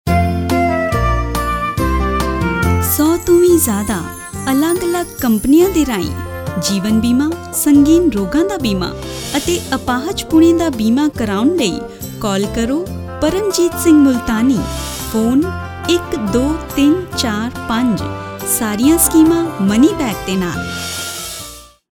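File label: promo punjabi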